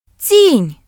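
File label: "shadow", British English; gcompris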